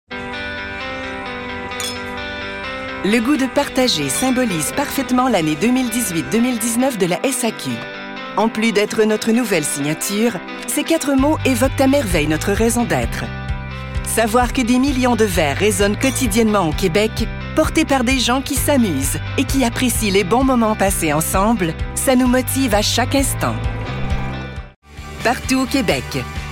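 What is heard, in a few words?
Canadian French, Corporate; GoLocalise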